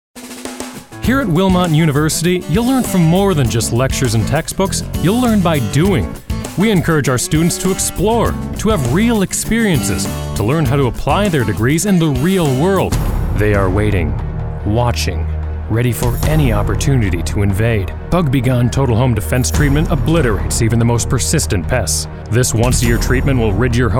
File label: Commercial